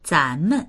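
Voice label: "zan2men", Mandarin Chinese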